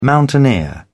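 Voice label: "mountaineer", British English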